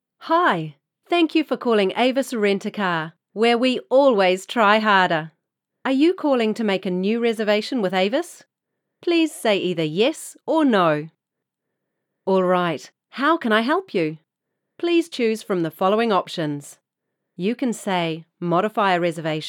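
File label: interactive voice response; Avis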